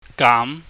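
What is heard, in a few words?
"gaam", Cantonese, mid rising tone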